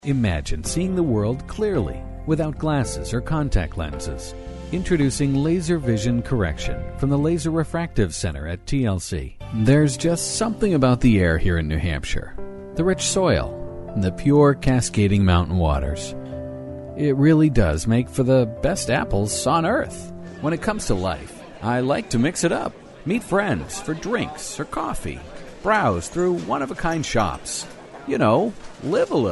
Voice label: male US retail